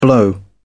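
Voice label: "blow over", British English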